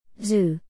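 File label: "Zoo", English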